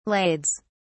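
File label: "lades", British English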